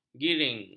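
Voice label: "giring", Malay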